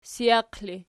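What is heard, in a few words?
sijaq ergative, Archi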